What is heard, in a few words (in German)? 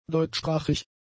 "deutschsprachig", german; adjectives